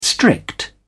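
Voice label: "strict", English, British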